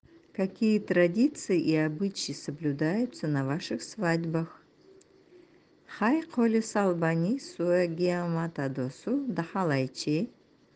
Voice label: свадьба нанайский

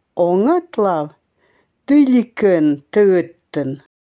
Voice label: ЭВЕНСКИЙ; Нос

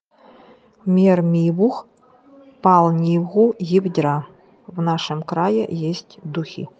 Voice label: Край нивхский язык

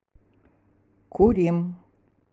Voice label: свадьба эвенкийский

